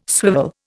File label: "swivel", Indian